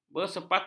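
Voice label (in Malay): "bersepakat", Malay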